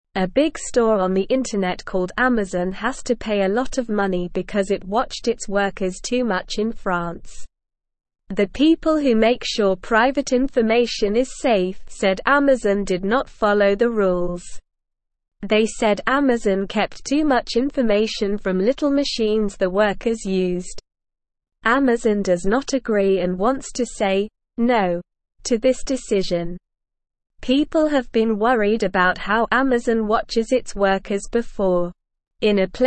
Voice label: Beginner SLOW Reading, English; English Newsroom